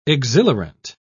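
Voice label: "exhilarant", British English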